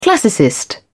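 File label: "classicist", English, uk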